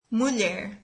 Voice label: "woman", brazilian